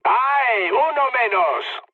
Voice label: player killed enemy, mood med, speech

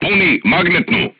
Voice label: voice message load HEAT, crew tanks serbian

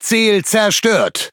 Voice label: Speech Pilots Torpedo Tangodown, DE; World Of Warships

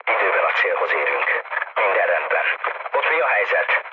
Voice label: hun chat, speech hun; War Thunder

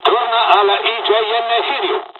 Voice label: evac, dialogs italian; midway jpn; War Thunder

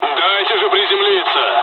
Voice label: dialogs russian; War Thunder

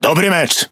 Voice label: Speech Quick Commands Good Game, PL; World Of Warships

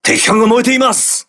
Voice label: voice message enemy on fire, crew ships japanese

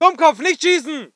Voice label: voice ger infantry

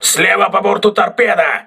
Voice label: voice message torpedo left, crew ships russian

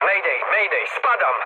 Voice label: aircraft destroyed mood low, speech pol

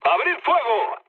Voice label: attack position, mood low, speech; War Thunder

